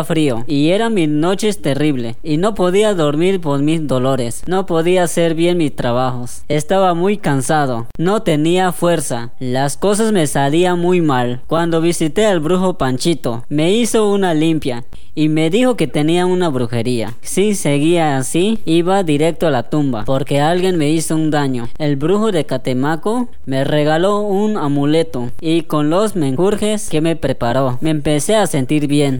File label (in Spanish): TESTIMONIO ENFERMEDADES DIVERSAS